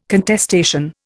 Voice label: "contestation", Indian